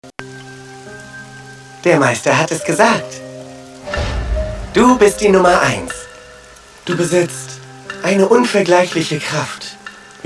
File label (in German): Hell Freundlich